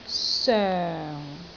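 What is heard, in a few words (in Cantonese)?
"soeng4", Cantonese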